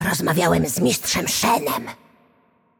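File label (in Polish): Game Outro, Polish; Kennen; bloom minigame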